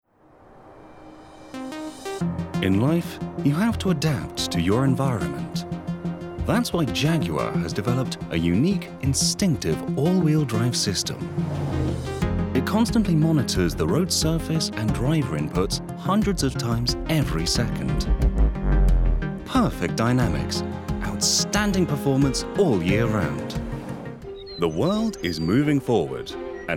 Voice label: Commercials

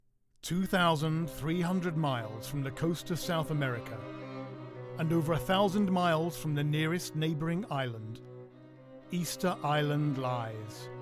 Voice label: Documentary

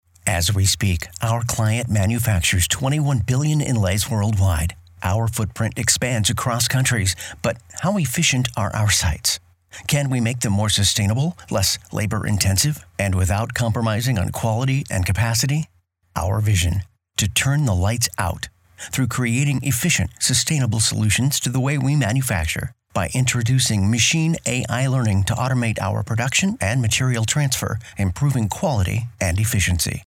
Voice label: corporate

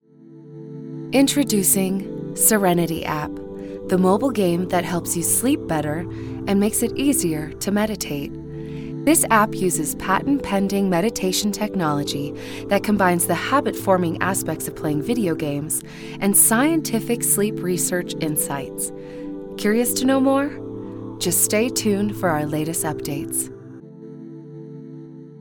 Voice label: Explainer Video

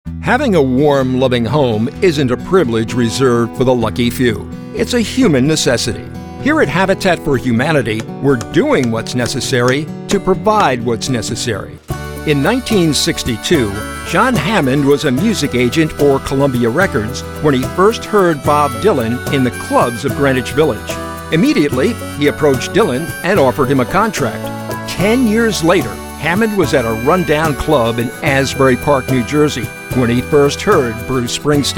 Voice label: Narr demo

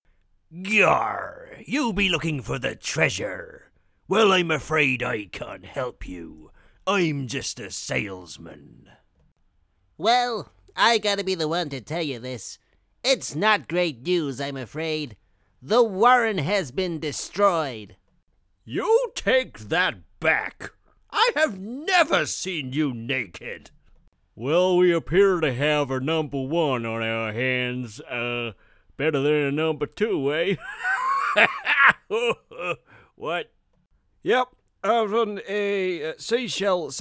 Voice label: CHARACTER VOICES

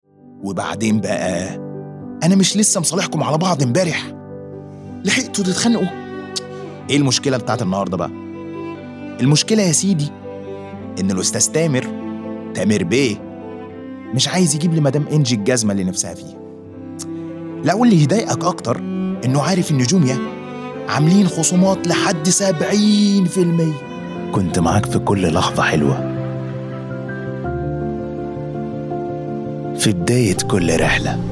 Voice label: Commercial EG

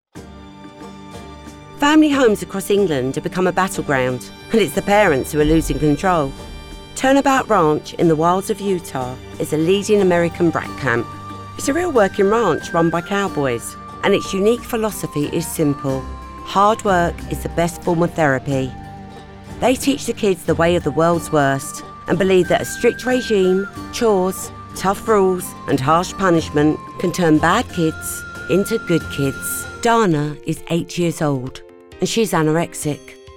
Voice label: narrative documentary

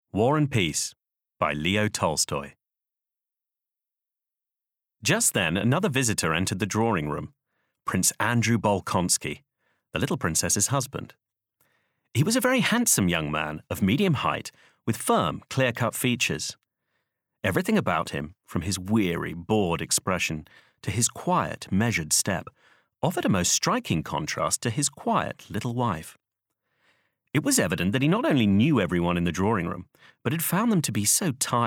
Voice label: Bookread

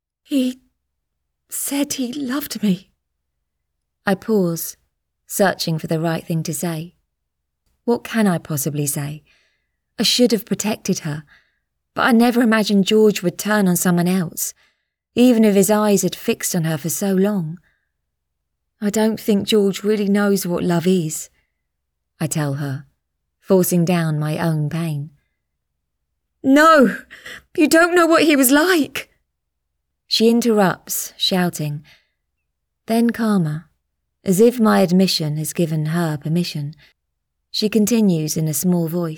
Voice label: Historical Fiction Audiobook

